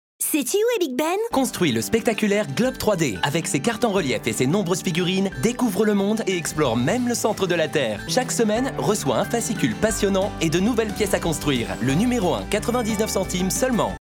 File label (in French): spot tv globe 3d, publicite; hachette